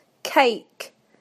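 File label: "cake", British English; PictureDictionary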